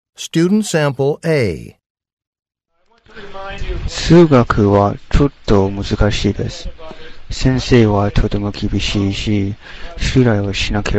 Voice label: japanese conversation

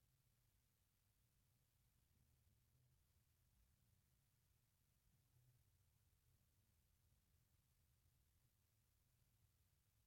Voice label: french directions part b

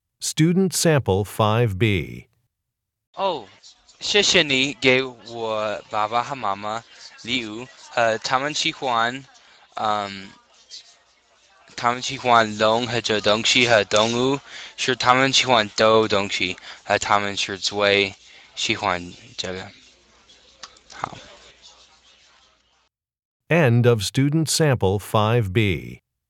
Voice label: chinese conversation

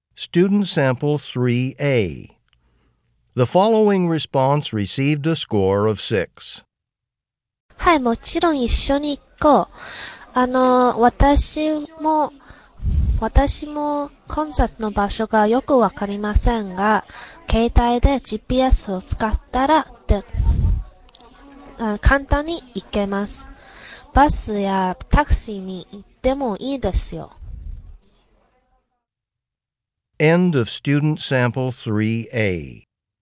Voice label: japanese conversation

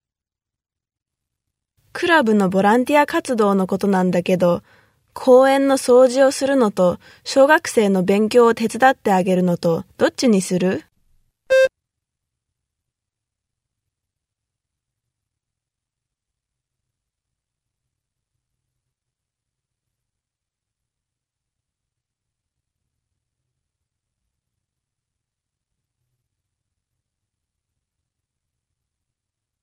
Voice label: Japanese Conversation

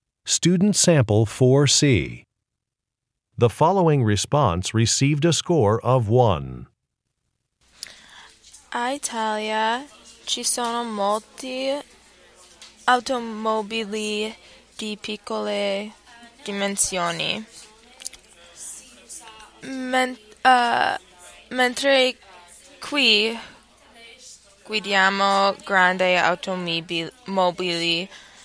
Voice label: italian

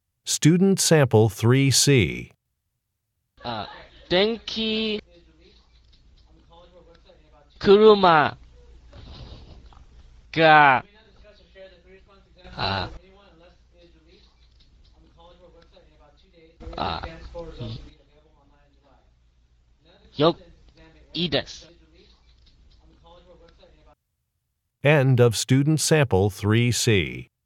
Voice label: japanese conversation

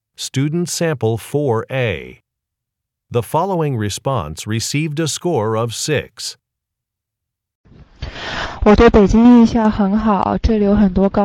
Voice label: chinese conversation

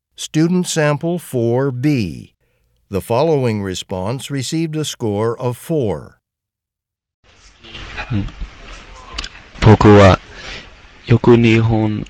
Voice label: japanese conversation

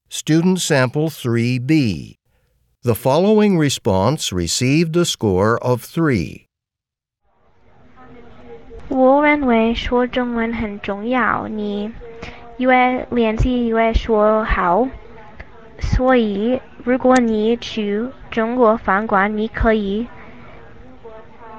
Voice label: chinese conversation

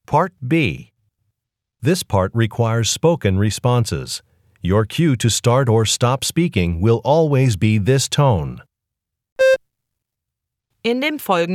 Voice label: german directions

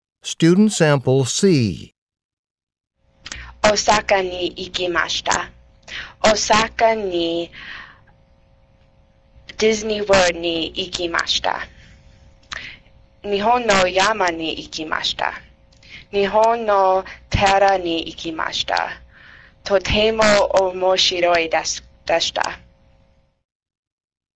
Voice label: japanese conversation

